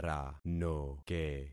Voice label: "ranoke", concat syllables; Affixing experiment